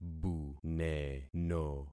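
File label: "buneno", concatenated syllables; Affixing experiment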